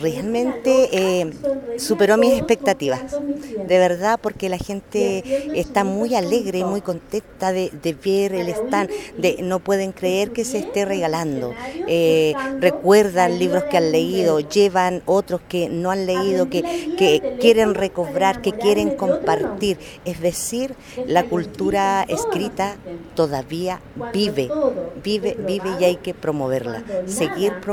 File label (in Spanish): cuña; libro puente alto; organizaciones de puente alto celebran el dia del libro